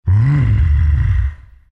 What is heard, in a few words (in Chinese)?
happy, zh; Chaknight; Dota 2